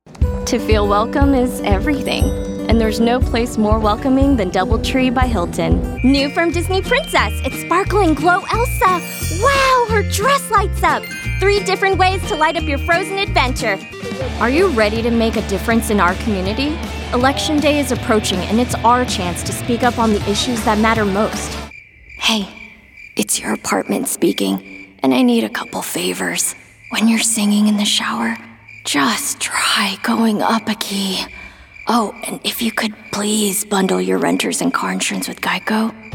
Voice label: Commercial